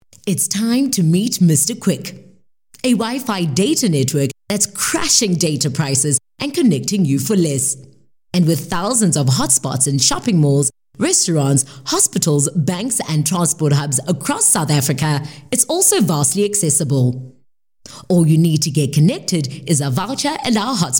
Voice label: English bright; OSM Talent